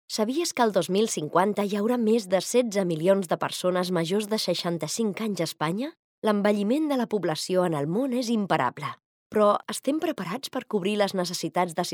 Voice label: female catalan voice over narrative

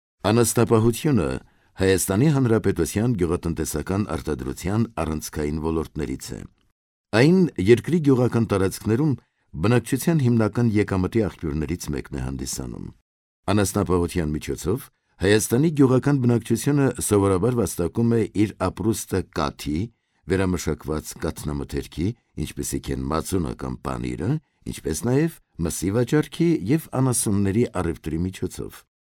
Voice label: male armenian voice over narrative

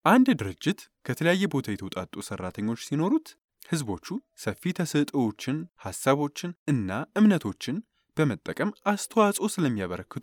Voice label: male amharic voice over sales

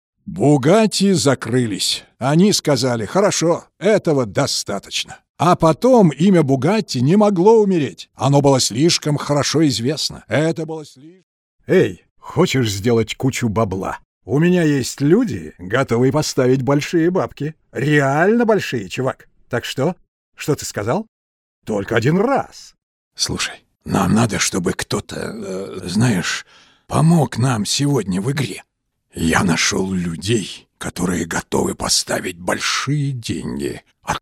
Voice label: male russian voice over talent character

